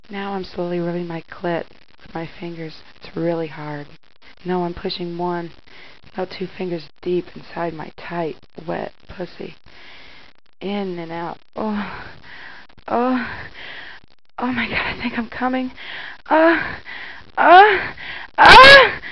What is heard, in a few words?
reading from script, silly lo-fi orgasms, vocals and spoken word